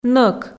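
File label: "нӧк", Komi, female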